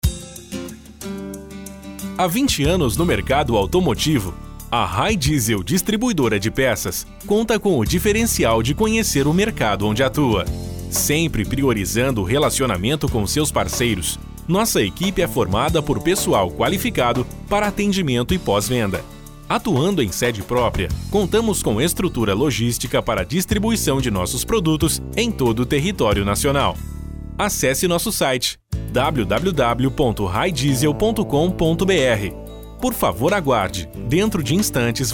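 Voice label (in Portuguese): Espera Telefônica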